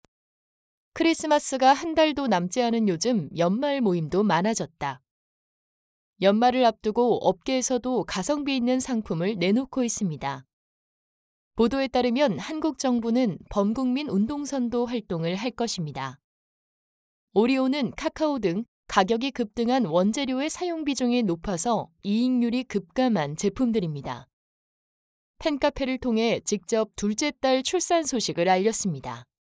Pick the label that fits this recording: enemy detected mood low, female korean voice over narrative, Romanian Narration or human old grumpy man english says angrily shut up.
female korean voice over narrative